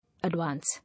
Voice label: "Advance", English